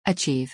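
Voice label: "achieve", English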